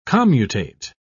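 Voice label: "commutate", British English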